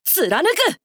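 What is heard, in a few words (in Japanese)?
「貫く！」, 男の子 王道系少年主人公 戦闘ボイス 攻撃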